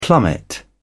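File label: "plummet", British English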